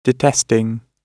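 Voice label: "detesting", British English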